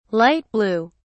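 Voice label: "light-blue", English, British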